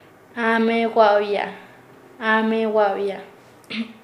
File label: Nasa Yuwe; hablar en voz baja; paez